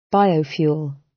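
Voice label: "biofuel", British English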